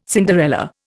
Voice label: "Cinderella", Indian

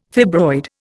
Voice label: "fibroid", Indian

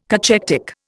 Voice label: "cachectic", Indian

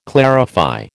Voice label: "clarify", American

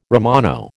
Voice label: "Romano", American

